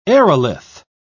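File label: "aerolith", British English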